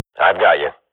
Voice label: radio bot reponse cover request, Counter-Strike swat; Global Offensive; player vo